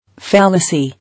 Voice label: "Fallacy", English